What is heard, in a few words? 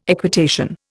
"equitation", Indian